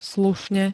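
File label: "slušne", Slovak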